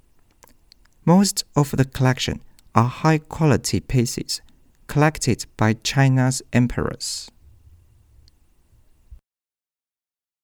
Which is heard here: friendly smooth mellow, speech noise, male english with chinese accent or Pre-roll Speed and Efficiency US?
male english with chinese accent